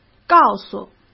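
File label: "告诉", Mandarin Chinese; chinese flashcards; hsk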